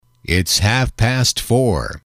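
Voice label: its half past four, English, male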